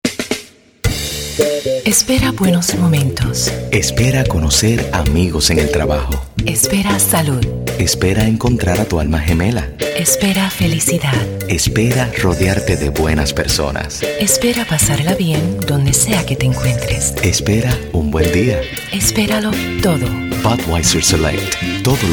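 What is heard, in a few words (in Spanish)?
2 voces radio en español; budweiser select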